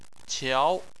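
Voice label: "qiao", Chinese, tone 2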